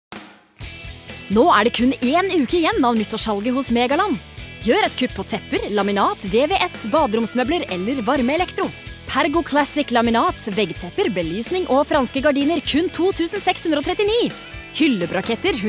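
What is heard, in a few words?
Norwegian hard sell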